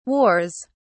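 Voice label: "wars", British English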